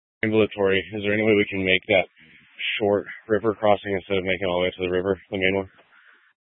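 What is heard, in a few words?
Incident Tac 1, Scanner audio recording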